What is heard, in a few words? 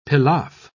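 "pilaf", British English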